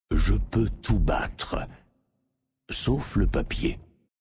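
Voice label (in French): French Male Blague; ToutBattre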